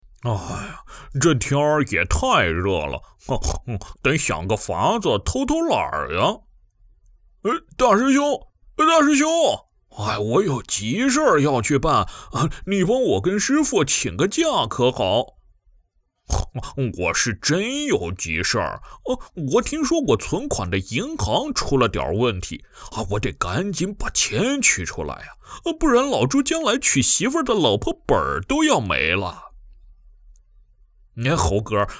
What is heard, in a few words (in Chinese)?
男 角色模仿 八戒 干音